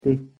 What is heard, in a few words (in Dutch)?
"te", Bretons; thee-in-andere-talen